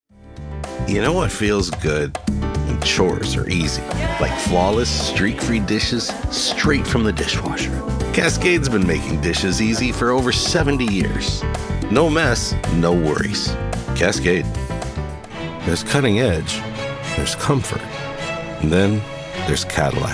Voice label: commercial